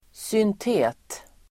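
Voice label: "syntet-", Swedish; Uttal: [²synt'e:t-]; syntet- förled, synthetic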